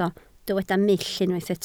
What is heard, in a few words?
"mull", Welsh